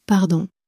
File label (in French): "pardon", French; flashcards